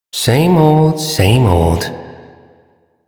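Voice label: Male Sedentary; Warframe; Duviri; Duviri Ambient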